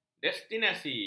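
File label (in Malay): "destinasi", Malay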